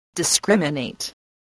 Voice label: "discriminate", english spelling